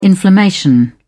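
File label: "inflammation", British English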